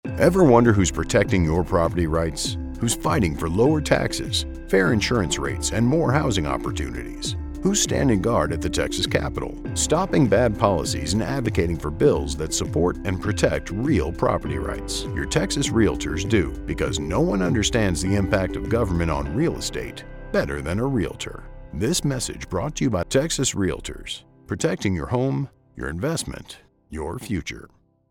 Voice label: Advocacy Male; advocacy at the capitol